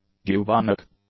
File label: "bubonic", English; adjectives